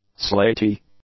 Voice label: "slaty", English; adjectives

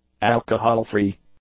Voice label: "alcohol-free", English; adjectives

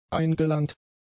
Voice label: "eingelangt", German; adjectives

